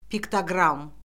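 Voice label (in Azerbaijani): "piktoqram", Azerbaijani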